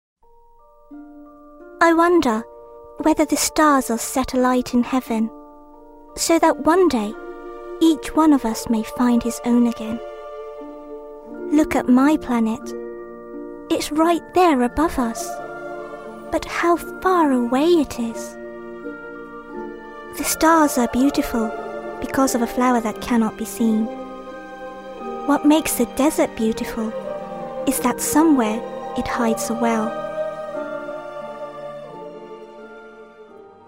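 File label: Japanese, English accent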